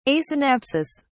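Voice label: "asynapsis", British English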